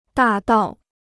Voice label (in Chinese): "大道", Chinese